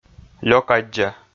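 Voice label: "лёкаддза", Komi, male; kpv audio dict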